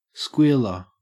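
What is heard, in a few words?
"squealer", Australian English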